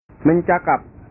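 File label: "mencakap", Indonesian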